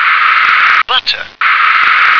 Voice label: "butter", English